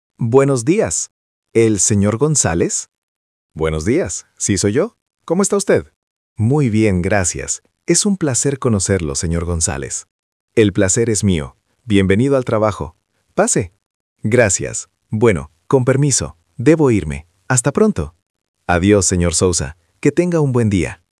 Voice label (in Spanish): Dialogo Formal